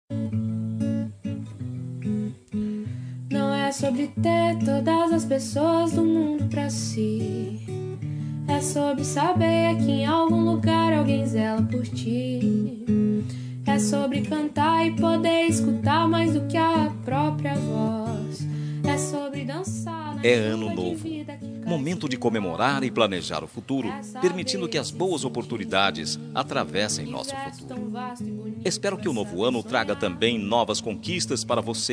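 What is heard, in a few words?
male; ano n neutro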